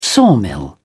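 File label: "sawmill", English, British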